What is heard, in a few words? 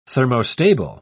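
"thermostable", British English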